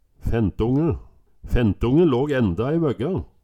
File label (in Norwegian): "fentonge", Numedalsmål